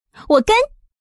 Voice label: Call, woman; goldenflower